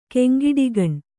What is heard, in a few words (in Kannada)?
"ಕೆಂಗಿಡಿಗಣ್", Kannada